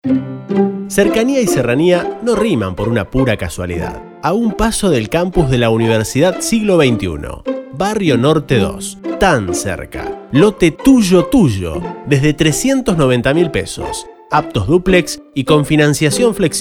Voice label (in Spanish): spot; Gama Barrio Norte Cercania 24s